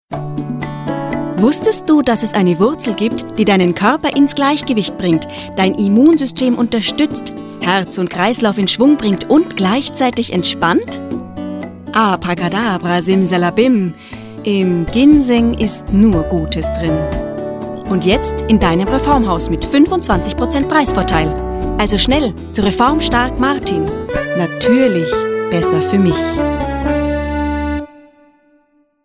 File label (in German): Werbung